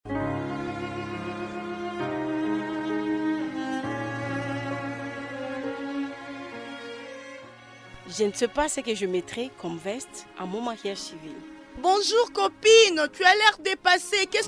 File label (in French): radio spot